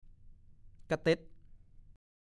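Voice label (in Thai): "กะเต็ด" ภาษาโคราช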